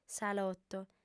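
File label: "saloto", female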